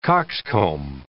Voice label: "cockscomb", English

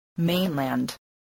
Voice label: "mainland", spelling voice

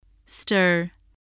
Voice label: "stir", voice

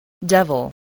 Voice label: "devil", english spelling

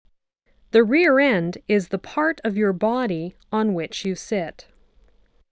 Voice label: "rear end", english

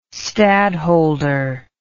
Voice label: "stadholder", English

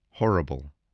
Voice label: "horrible", voice spelling

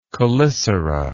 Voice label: "chelicerate", English

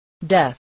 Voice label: "death", English

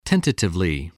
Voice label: "tentatively", spelling

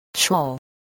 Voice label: "shawl", spelling

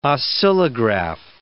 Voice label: "oscillograph", English word

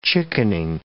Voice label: "chickening", English

